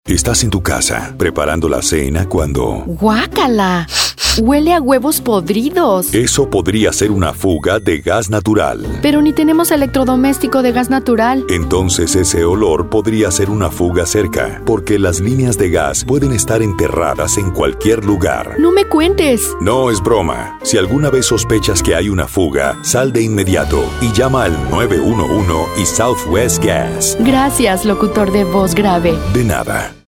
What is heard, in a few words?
Radio Mix Spanish; Non-Gas Dudette